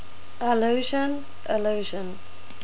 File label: "allusion", NZ English